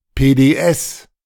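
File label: "PDS", German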